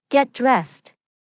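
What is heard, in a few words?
Get dressed, English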